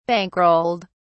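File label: "bankrolled", British English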